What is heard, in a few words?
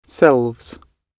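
"selves", British English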